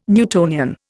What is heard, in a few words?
"Newtonian", Indian